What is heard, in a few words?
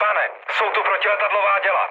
damaged aa, speech cze, mood low; War Thunder